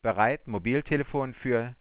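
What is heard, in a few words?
ready to dial mobile phone for, German, Voice Sounds; Samsung SGH-i310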